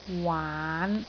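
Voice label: "waan5", Cantonese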